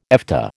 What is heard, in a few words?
"Efta", American